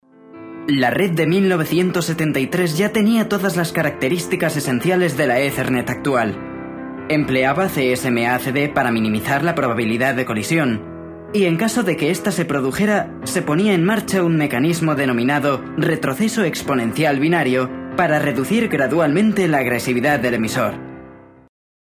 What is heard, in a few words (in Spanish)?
narración